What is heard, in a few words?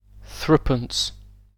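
"threepence", British English; pronunciations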